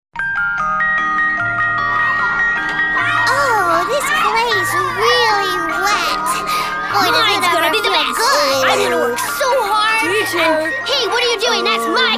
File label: All voices Animation Voice Over